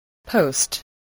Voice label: "post", English

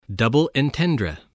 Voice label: "double entendre", spelling

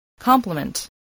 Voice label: "complement", english

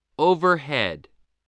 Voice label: "overhead", voice

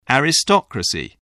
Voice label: "aristocracy", English

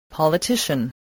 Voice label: "politician", English

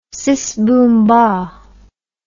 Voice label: "sis boom bah", words

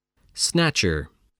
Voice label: "snatcher", English voice

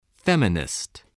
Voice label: "feminist", spelling

